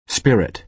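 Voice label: "spirit", American English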